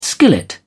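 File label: "skillet", British English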